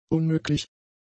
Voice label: "unmöglich", German; adjectives

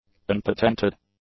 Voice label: "unpatented", english; adjectives

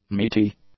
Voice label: "meaty", English; adjectives

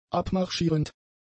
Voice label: "abmarschierend", German; adjectives

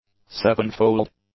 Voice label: "sevenfold", English; adjectives

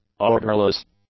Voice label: "orderless", English; adjectives

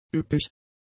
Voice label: "üppig", German; adjectives

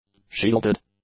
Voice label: "shielded", English; adjectives

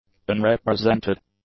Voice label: "unrepresented", english; adjectives

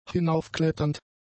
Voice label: "hinaufkletternd", German; adjectives

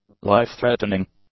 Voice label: "life-threatening", english; adjectives

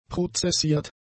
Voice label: "prozessiert", German; adjectives

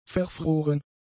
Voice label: "verfroren", German; adjectives

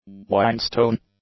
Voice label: "whinestone", English

